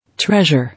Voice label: "Treasure", English